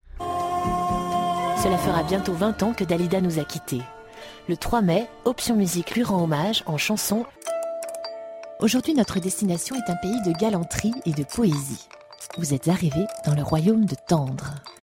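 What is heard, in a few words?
French Swiss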